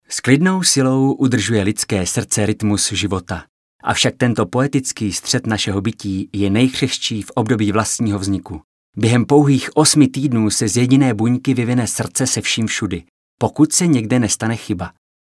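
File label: male Czech documentary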